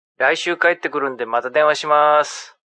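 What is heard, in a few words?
Phone Message Japanese, Male, Telephone Voice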